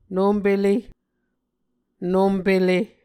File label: "nompele", Muscogee